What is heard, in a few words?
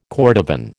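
"cordovan", American English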